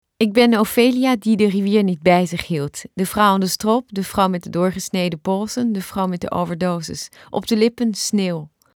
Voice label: NL; taurus-studio